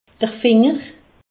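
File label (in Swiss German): "finger", Haut Rhin; medecine; doigt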